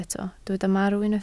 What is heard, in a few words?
"marw", Welsh